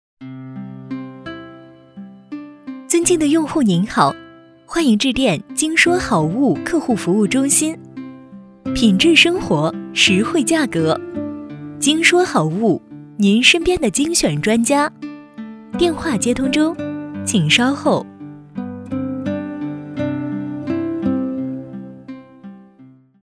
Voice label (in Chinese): 女 彩铃; 鲸说好物